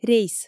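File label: "рейс", Komi, female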